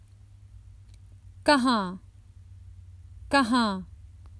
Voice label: Where, Common Hindi Phrases for travel